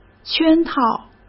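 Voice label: "圈套", Mandarin Chinese; hsk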